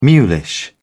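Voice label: "mulish", British English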